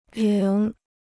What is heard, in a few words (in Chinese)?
"仍", Chinese